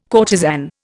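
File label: "courtesan", Indian English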